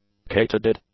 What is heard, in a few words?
"katydid", English; nouns